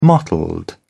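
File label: "mottled", British English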